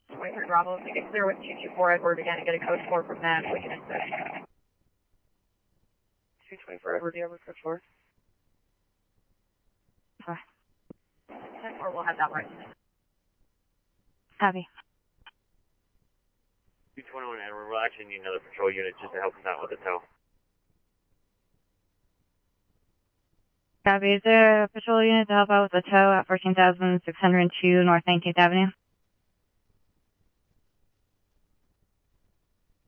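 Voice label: scanner, Phoenix Police Department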